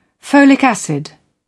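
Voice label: "folic acid", British English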